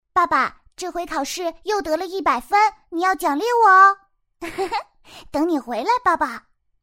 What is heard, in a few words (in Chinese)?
动画 童声 女童声 7-8岁感觉